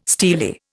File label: "steely", Indian